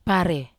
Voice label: "pare", Wamesa

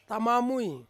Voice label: "tamamui" father, Ansus

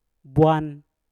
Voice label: "bwan", Mpur; panggil

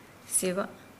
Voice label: Nukuoro; nine

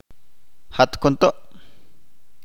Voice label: "scarce", Chalchiteko

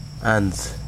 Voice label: "sun", Wahgi; lae unitech

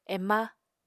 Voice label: "ema", Apatani

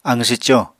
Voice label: "aqw swco", Apatani

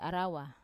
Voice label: "arawa", twig, Wamesa

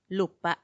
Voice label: "lupa", damp, macuiltianguis; humedo

